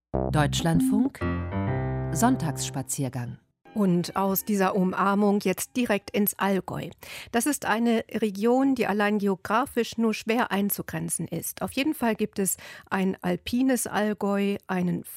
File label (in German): allgaeuerisch; dialektatlas; dialects